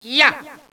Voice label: "yah", Echo inside caves, underground; DS; ML BOWSERS INSIDE; Luigi

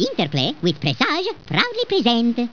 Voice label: Squeaky Voice; Mario; PC; MARIOSFUNDAMENTALS

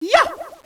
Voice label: "yah", Echo inside caves, underground; DS; ML BOWSERSINSIDE; Mario

